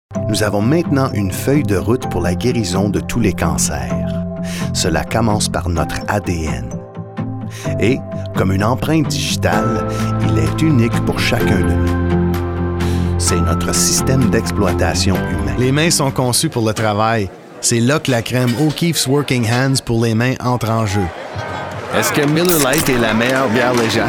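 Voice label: Commercial French; TaDa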